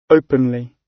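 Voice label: "openly", British English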